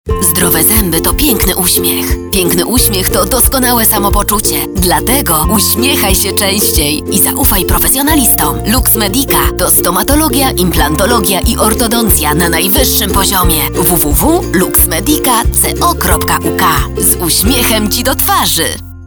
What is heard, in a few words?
Female VO Commercial